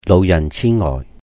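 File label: "lóuh yàhn chì ngòih", Cantonese; dementia / alzheimer disease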